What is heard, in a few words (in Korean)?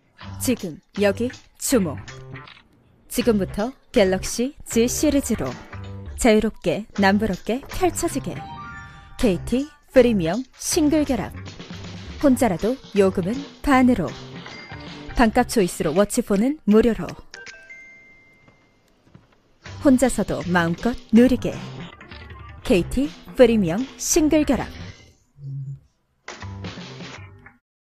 내레이션 샘플 스마트한